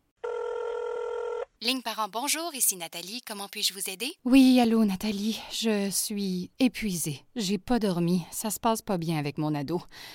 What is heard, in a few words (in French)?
voix studio maison